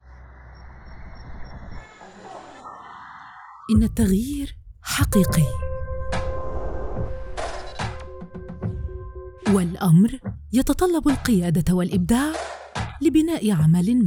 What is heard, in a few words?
Documentary